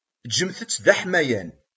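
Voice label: "Eǧǧet-t d aḥmayan.", Kabyle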